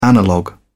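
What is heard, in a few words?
"analogue", British English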